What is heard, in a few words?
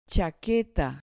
"chaqueta", Spanish